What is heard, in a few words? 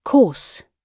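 "course", British English; Oxford Audio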